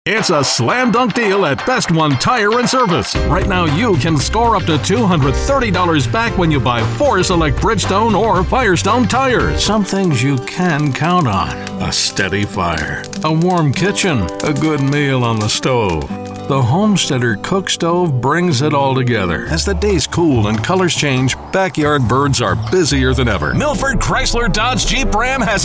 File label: commercial